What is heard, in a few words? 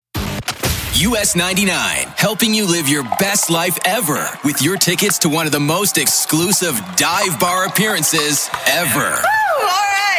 canadian country